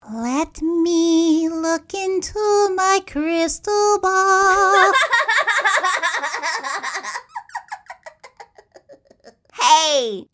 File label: Characters Voice Over